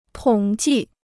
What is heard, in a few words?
"统计", Chinese